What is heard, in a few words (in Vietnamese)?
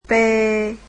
"p", Vietnamese alphabet